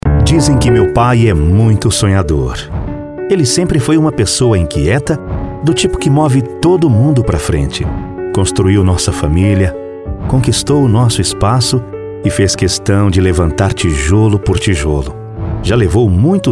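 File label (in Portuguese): VOZES MASCULINAS, Padrão Institucional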